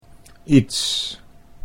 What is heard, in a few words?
"iets", Dutch